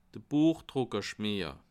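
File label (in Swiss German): "buechdruckerschmeer", Bas Rhin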